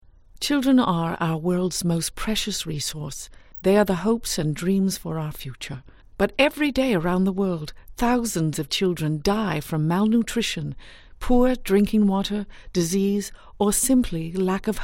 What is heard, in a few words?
English Voiceover; SaveRKids